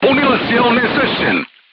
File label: voice message charger contused, crew tanks serbian